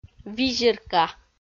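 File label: "визирка", Komi, female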